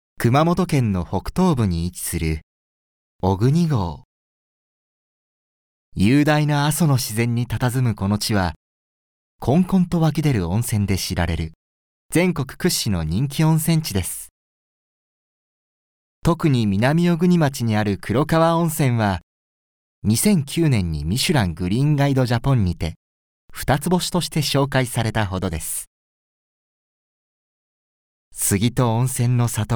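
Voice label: narration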